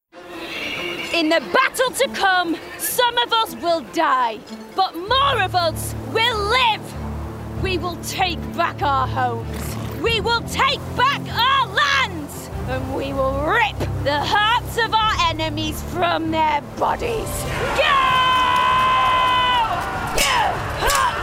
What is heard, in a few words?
Gaming Warrior; Warrior Queen – Northern